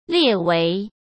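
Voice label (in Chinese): "列为", Mandarin Chinese; hsk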